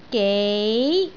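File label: "gei2", Cantonese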